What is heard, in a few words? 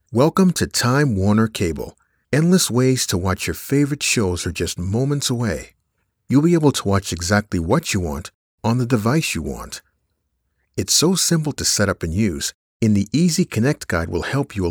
eLearning Voiceover; Time Warner Cable